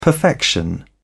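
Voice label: "perfection", British English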